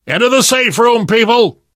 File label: stay together inside, left4dead survivor voice namvet; source engine; left 4 dead 2